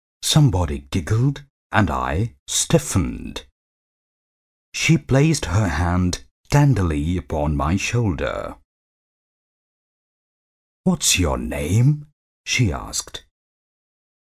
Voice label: english, male voice

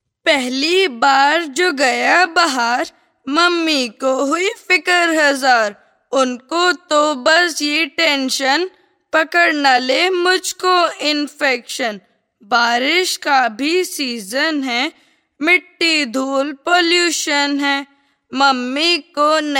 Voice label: child, male voice

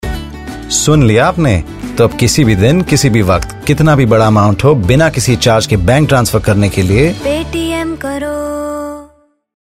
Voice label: Hindi, male voice

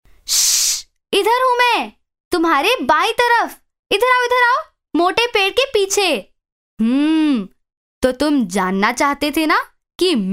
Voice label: radio- 15 years, female voice

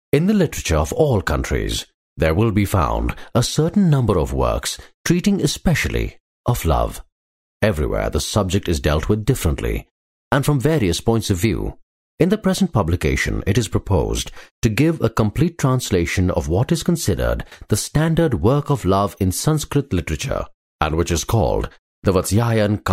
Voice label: English, male voice

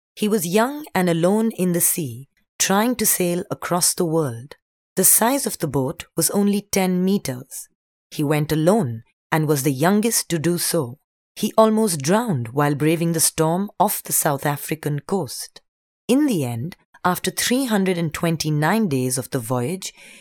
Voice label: female voice; film maker

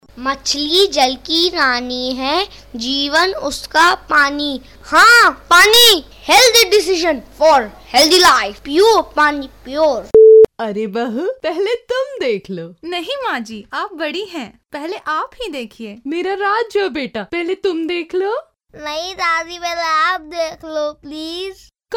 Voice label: child, male voice; gurgaun

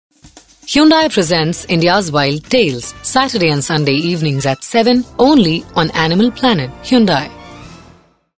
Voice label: female voice English; Hyundai

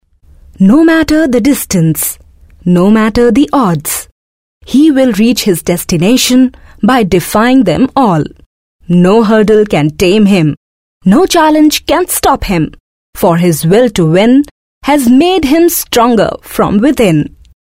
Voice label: English, female voice

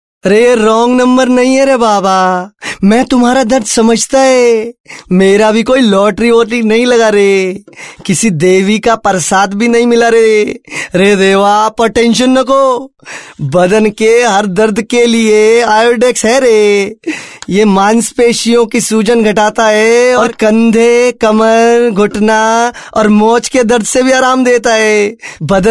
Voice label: male voice

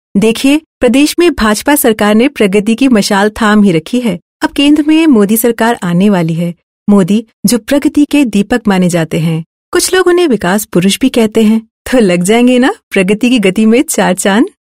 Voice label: hindi sample, female voice

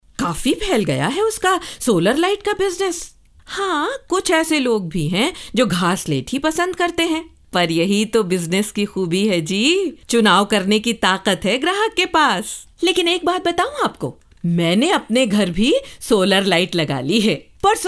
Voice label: radio vo, female voice

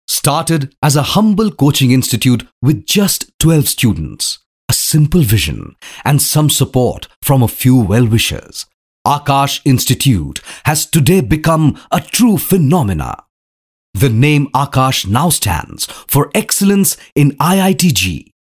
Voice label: english, male voice

